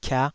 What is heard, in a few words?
"kiah5", taiwanese syllables